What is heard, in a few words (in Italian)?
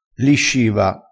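"lisciva", crespinese dialettale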